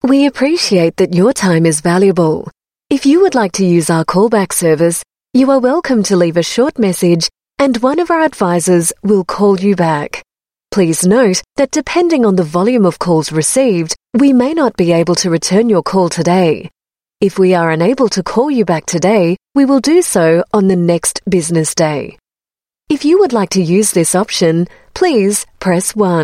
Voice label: AU MidAtlantic English IVR Australian Tax Office, Australian Female